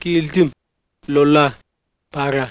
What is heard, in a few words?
melpa; [kial̪t̪im lola paʟa]